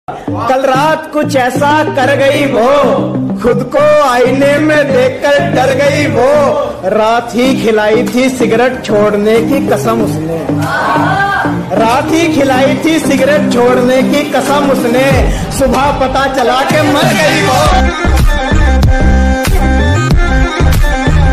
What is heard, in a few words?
Attitude Poetry Ringtone